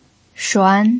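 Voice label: "shuan", Mandarin Chinese pinyin, second tone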